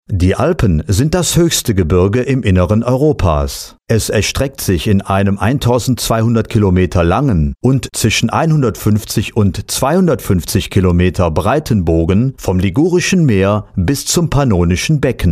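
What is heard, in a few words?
German M VOICEOVER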